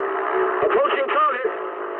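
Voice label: English near waypoint mood medium; War Thunder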